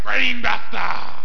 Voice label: Warrior Voice